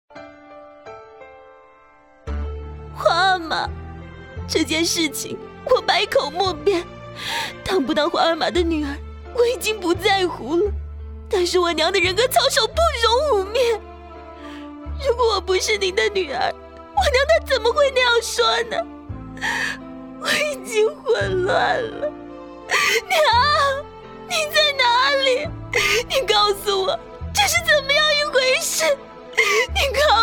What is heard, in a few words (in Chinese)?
台湾腔; 紫薇翻配